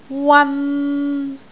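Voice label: "wan3", Cantonese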